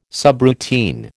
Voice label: "subroutine", American